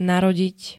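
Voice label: "narodiť", Slovak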